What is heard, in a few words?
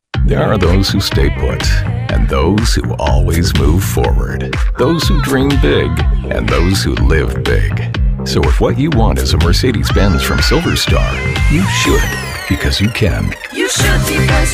Mid Atlantic English Commercial